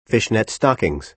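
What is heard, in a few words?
"fishnet stockings", American English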